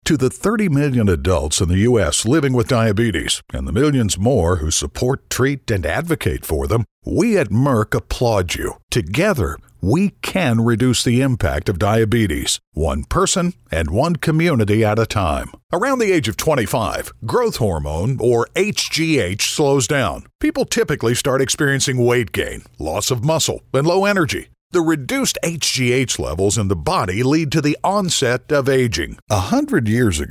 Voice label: Narrations